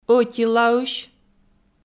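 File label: "ӧтилаысь", Komi, female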